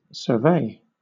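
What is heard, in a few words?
"survey", British English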